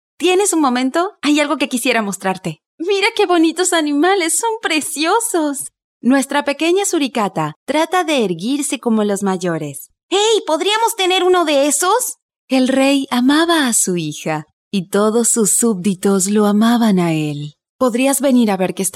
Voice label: Locutora Argentina

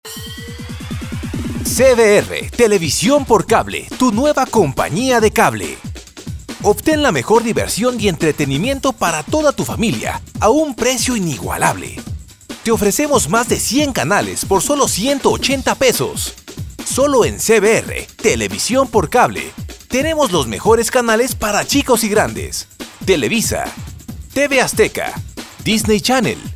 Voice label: perifoneo

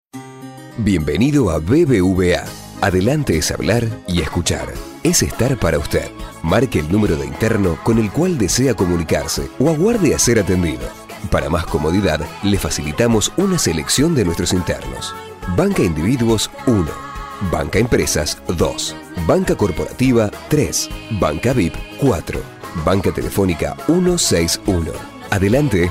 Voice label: Locutor uruguayo

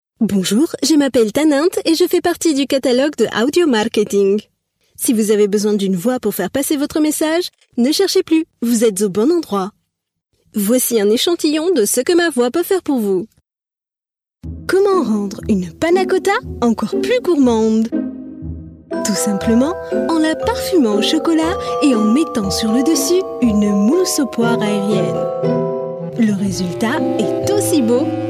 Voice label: Locutora francesa; francia; audiomarketing

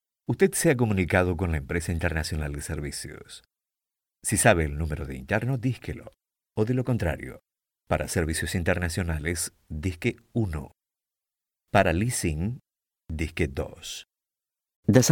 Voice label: Locutor Argentino; argentina

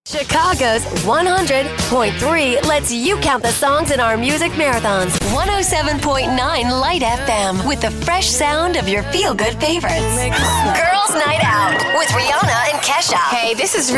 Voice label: Locutora americana; eeuu

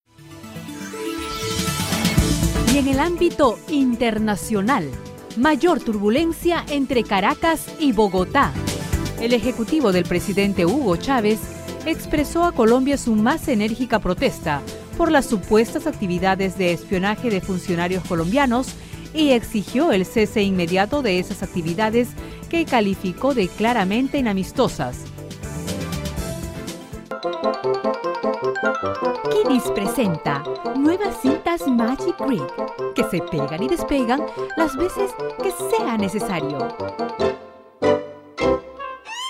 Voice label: Locutora Peruana

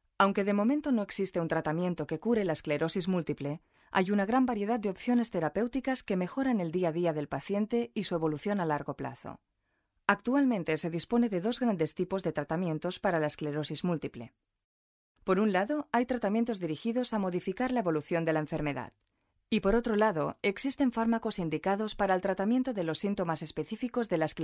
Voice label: Locutora espanola

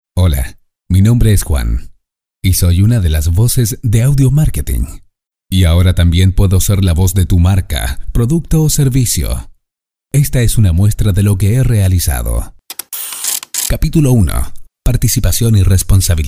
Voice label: Locutores, Chile; Audiomarketing

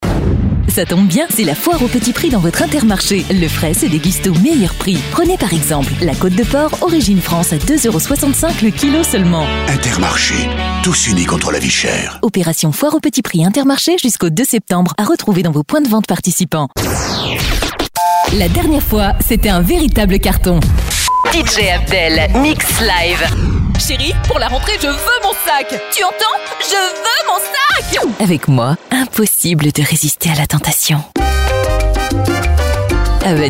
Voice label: locutora francesa; francia

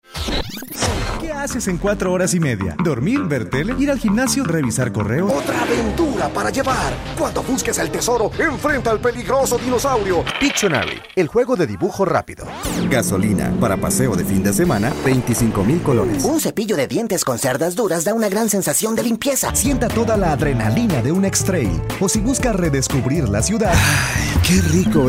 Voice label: Locutor costa rica

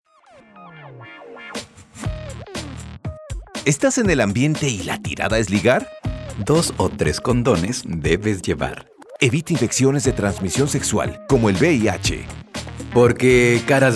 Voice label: Spot, comerciales para radio; VIH; Famecom

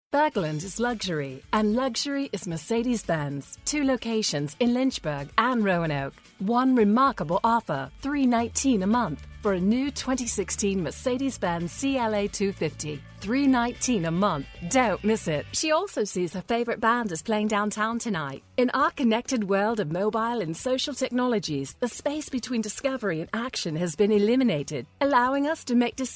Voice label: locutora inglesa; inglaterra

